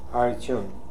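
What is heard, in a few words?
"Hariton", pronunciations; Fourth Way; Beelzebub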